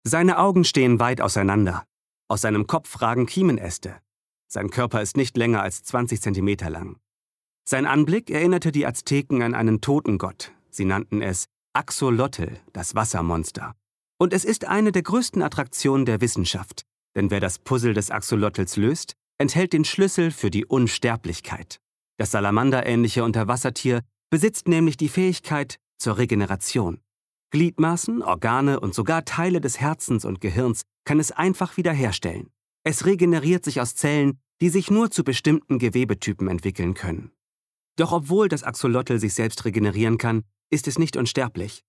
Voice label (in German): Dokumentarischer Text